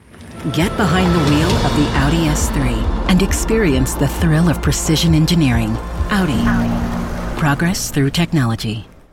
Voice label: Radio Commercials, Trustworthy Understanding, Female Voice; AUDI 2025